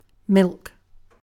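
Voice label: "milk", British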